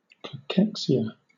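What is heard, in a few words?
"cachexia", British English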